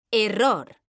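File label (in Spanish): "error" Spanish, female; mekanta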